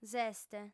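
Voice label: "s'est", female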